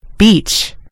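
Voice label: "beach", American English; gcompris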